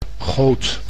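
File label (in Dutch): "goot", Dutch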